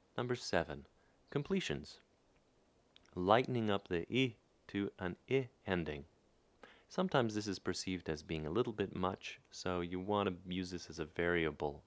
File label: rp, phonetics; speech